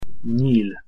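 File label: "nyil", Hungarian pronunciation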